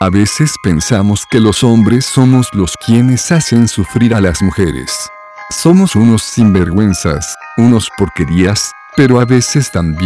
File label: vocalizer expressive high 22khz; juan premium